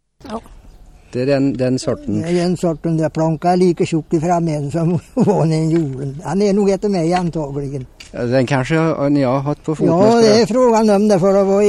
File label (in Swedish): Nor, dialekter; Svenska sagor; Folkminnen